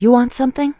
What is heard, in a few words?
early human female shopping